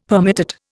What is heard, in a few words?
"permutate", Indian; Dictionary